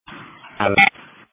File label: Sheriff Dispatch